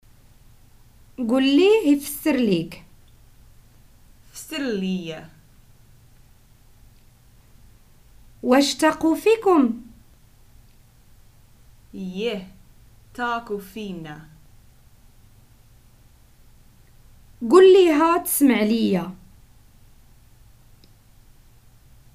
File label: prompts, Moroccan Arabic